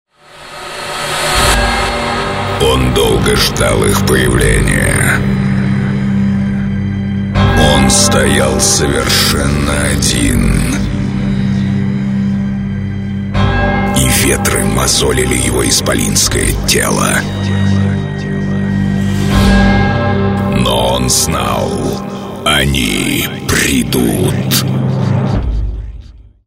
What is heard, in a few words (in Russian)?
diktor; dictor